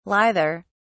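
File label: "lither", British English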